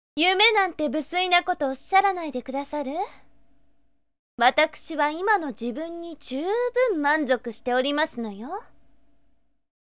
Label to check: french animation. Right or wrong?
Wrong